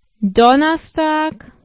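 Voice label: "Donnerstag", German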